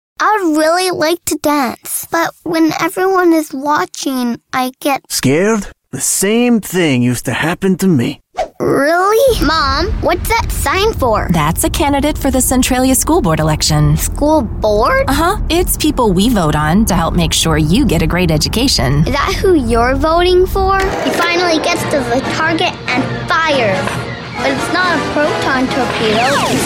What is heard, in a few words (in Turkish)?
Amerikan Ingilizcesi Erkek Cocuk 7 Yasinda; seslendirme